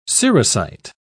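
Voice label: "cerussite", British English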